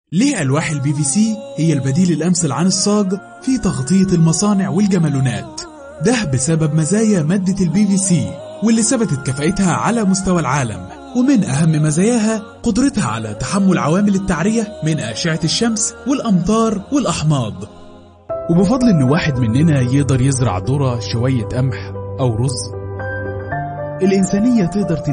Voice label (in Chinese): 阿拉伯语男 外语 小语种 磁性